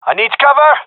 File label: request cover me, gendarmerie male; vo